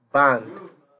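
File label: "band", Nigerian Pidgin, male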